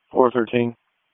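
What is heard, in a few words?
Raleigh Police Southeast District Dispatch, p25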